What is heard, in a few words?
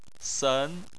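"sen" tone 2, Chinese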